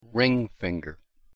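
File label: pronunciation "ring finger", English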